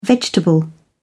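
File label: "vegetable", British English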